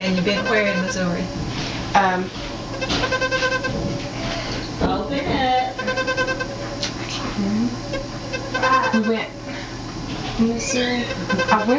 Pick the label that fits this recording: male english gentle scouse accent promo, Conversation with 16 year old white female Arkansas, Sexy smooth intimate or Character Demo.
Conversation with 16 year old white female Arkansas